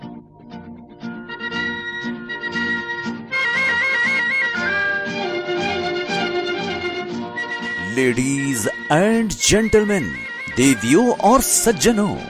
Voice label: narration